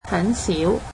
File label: "rarely", Cantonese